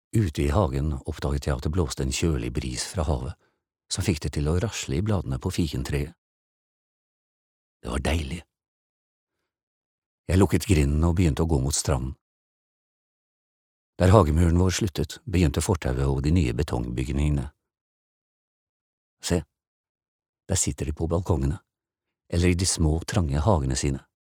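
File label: Norwegian audio book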